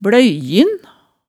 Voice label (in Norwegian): "bløyjin", hemsedals maalet tale